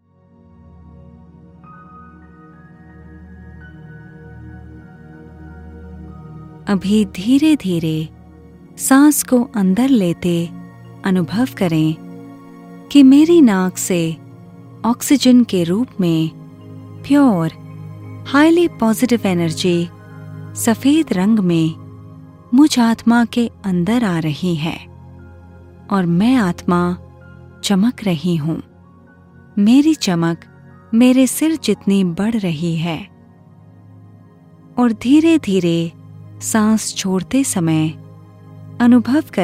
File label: Breathing Practice, Hindi, Meditation; SoulConsciousness